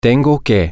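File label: I have to, Spanish, male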